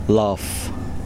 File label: "hole", Kosraean